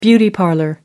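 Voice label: "beauty parlor", British English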